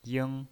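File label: "ying" Mandarin Chinese pinyin voice, first tone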